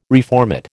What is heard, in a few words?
"reformat", American